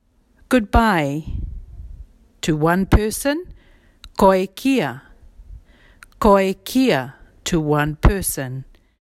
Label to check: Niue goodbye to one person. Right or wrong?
Right